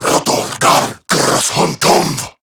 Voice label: Attack, Male; Warframe; Corrupted Grineer Male; Dialog Barks Battle